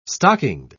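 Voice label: "stockinged", English, British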